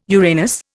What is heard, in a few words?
"Uranus", Indian